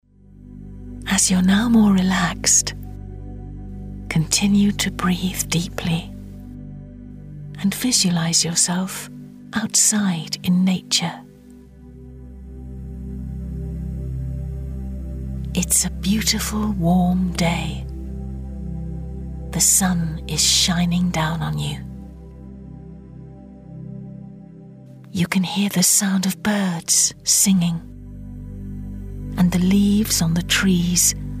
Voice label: relaxing and calming